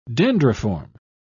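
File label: "dendriform", British English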